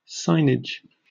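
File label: "signage", British English; pronunciations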